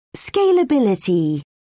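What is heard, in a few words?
"scalability", British English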